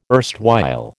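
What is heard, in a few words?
"erstwhile", American English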